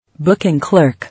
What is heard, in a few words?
"Booking clerk", English